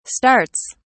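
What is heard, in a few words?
"starts", British English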